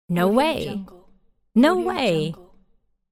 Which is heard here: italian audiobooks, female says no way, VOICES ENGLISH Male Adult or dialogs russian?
female says no way